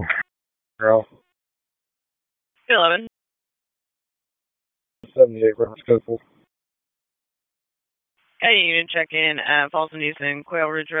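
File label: Police District Dispatch, p25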